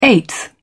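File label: "eighth", British English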